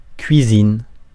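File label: "cuisine", French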